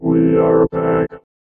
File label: VOICEFX ELCTR 140; Techno2